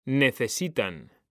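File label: "necesitan", Spanish; mekanta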